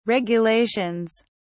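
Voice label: "regulations", British English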